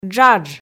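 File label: "джадж", Komi, female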